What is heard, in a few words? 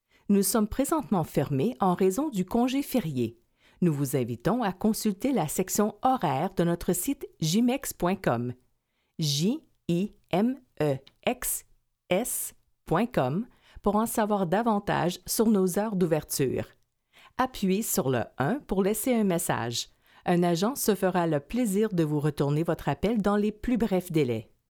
French Canadian IVR; AdvantageIVR